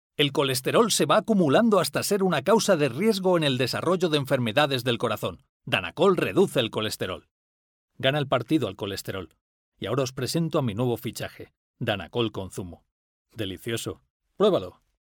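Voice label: Spanish Spain, male, voice over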